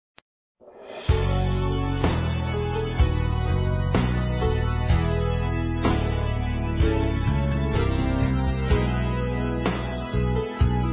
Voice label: Aniversário Avô Evangélica Feminino; Aniversários; Aniv Familiar

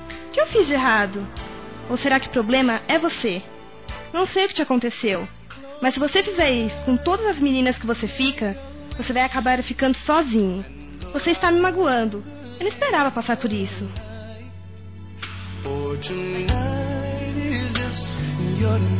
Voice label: Porque vc ñ olha pra mim, fem; Telemensagens; Telemensagens 2017

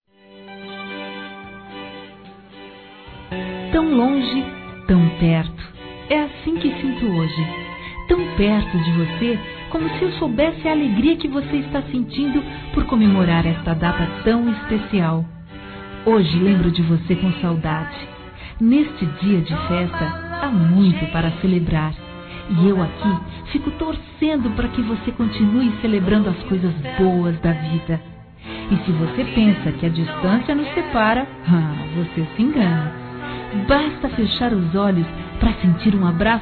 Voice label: Amigo (a) Distante Feminino; Aniversários; Aniversários diversos

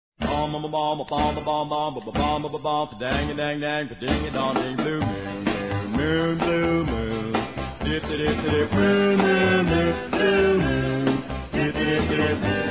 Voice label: CRIANÇA F; Aniversários; Aniversários diversos; fem

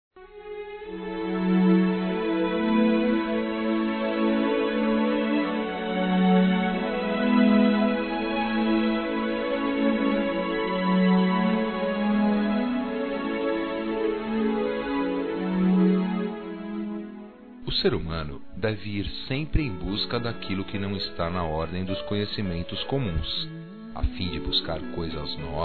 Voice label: NEUTRO, masc

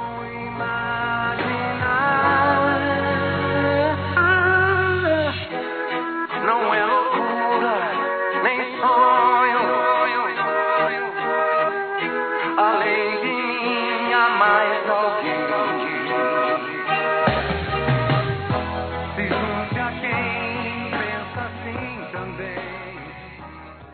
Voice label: NATAL P PAI, masc; Telemensagens; Natal e Ano novo

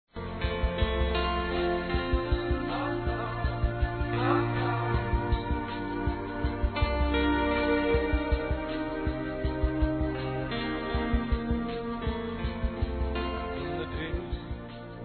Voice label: IRMÃ M, Telemensagens; Dia das Mães; Masc; Telemensagens 2017

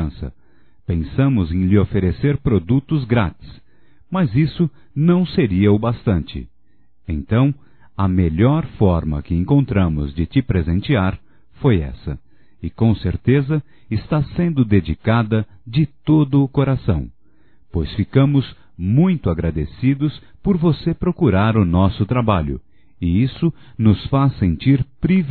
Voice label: Aniversário Cliente Masculino; Aniversarios; Telemensagens 2017

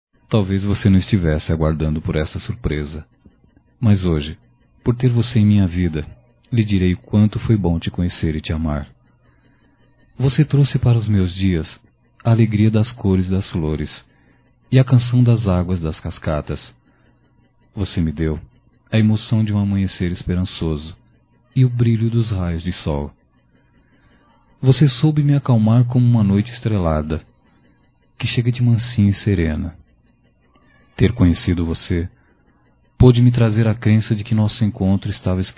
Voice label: Romantica Masculino, Só Voz; Telemensagens; Telemensagens 2017

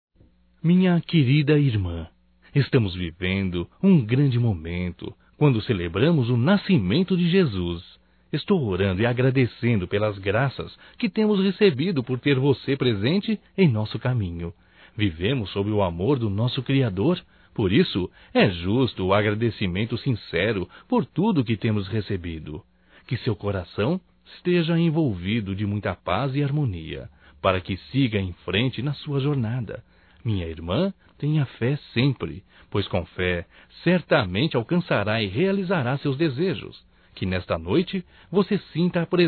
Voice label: NATAL ANO NOVO IRMA, masc; Telemensagens 2017

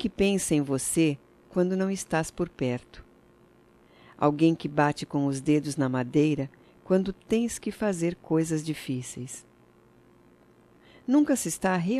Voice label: DIA DO AMIGO F; Telemensagens 2017; Datas Mistas

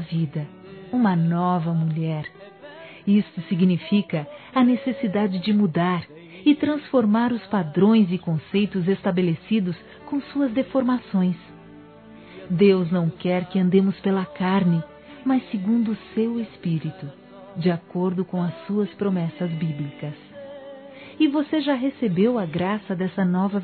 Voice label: FOI BATIZADA, Gospel, masc

